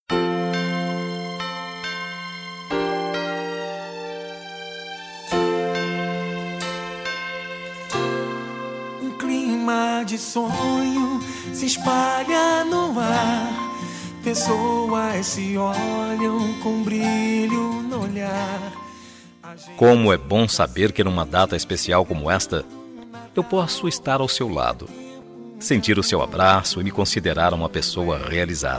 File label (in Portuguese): NATAL PARA PAI MASC, Telemensagem de Natal, Voz Masculina; Natal Todo Dia